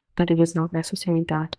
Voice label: Voice Acting es F3